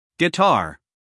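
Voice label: "guitar", American English, male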